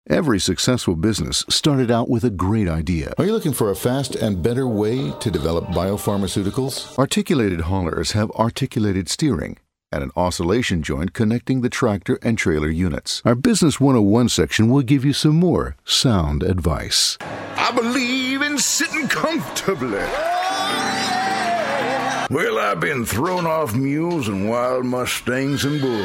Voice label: amerikansk man

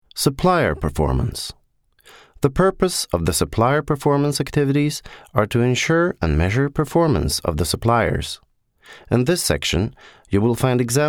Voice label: amerikansk man

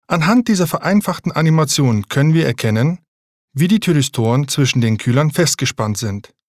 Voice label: tysk man

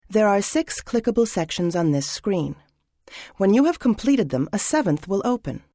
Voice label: brittisk kvinna

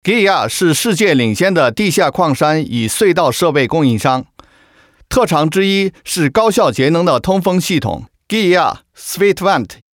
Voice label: kinesisk man

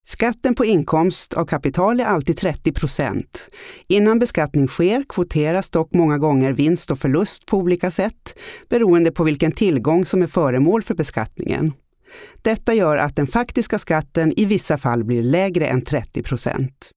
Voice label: svensk kvinna

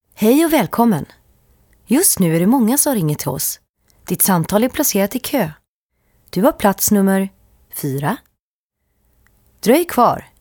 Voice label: svensk kvinna

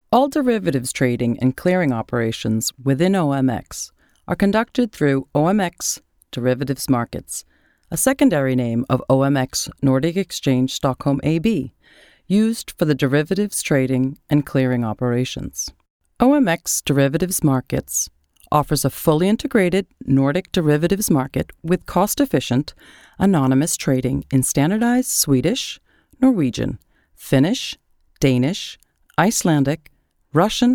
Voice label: amerikansk kvinna

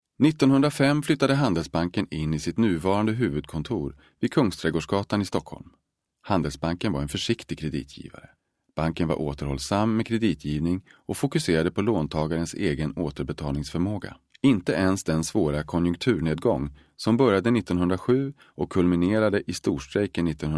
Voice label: svensk man

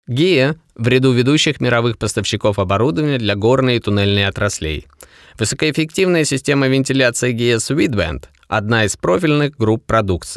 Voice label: rysk man

